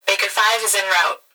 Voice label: Emergency Human Female Voice Police Radio Baker Is In Route; emergency effects